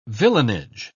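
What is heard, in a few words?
"villeinage", English, British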